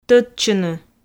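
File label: "тӧдчыны", Komi, female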